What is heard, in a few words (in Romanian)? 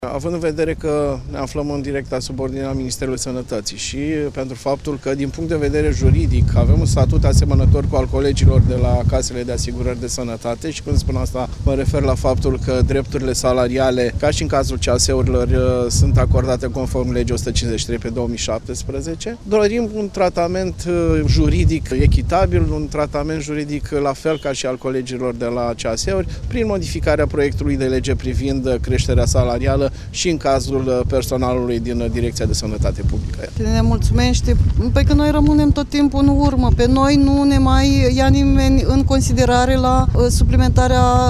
vox pop dsp iasi